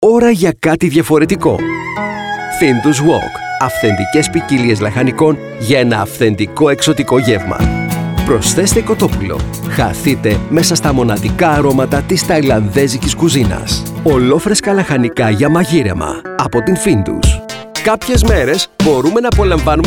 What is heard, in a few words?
gr commercial